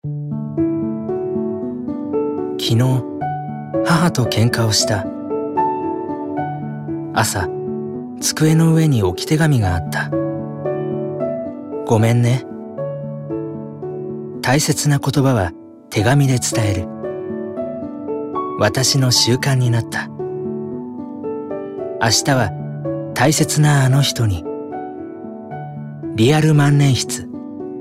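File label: commercial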